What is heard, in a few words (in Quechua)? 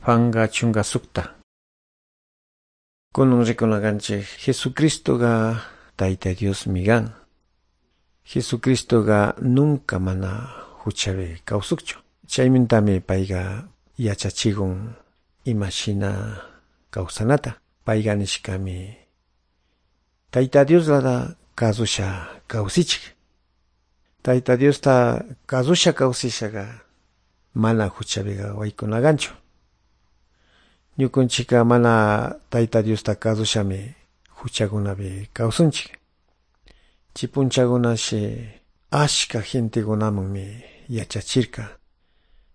good news quichua de calderon; quechua; bible